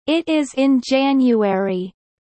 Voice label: Kelly low; spring festival